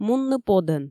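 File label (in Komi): "мунны подӧн", Komi, female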